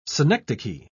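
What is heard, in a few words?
"synecdoche", British English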